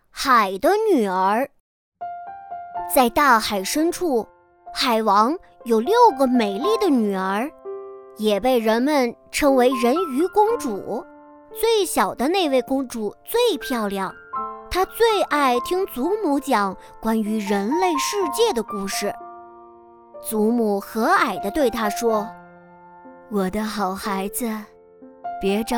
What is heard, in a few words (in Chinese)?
女 有声绘本 男; 海的女儿